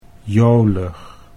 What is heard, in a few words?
"jolig", Dutch